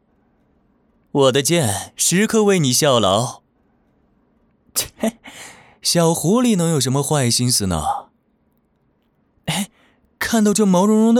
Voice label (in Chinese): 男 角色 青年音 剑士; 赤狐剑士